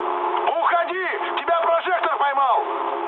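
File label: searchlite, mood high, Russian; War Thunder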